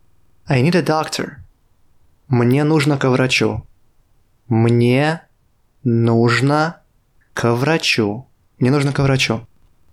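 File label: Russian, I need a doctor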